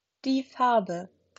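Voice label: "Farbe", German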